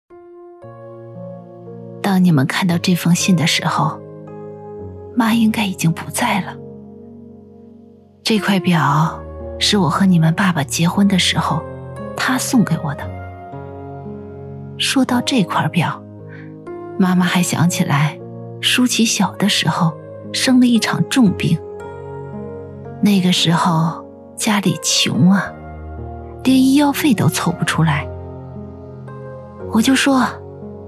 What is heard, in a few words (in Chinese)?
女,旁白,自述